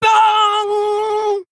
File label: Female Orc Trigger Shield Slam